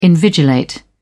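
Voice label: "invigilate", British English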